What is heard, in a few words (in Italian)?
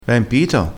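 "empito", vocabolario dialettale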